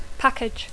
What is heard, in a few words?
"package", English; paquet; embalatge